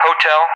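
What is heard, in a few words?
"Hotel", English; Alphabet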